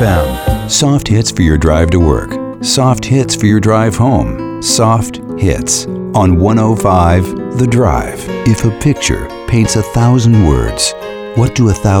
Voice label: radio men easy listening; radio imaging